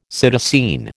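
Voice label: "psittacine", American English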